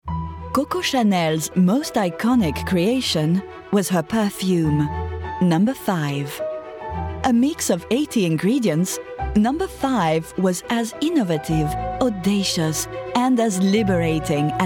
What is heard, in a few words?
doco French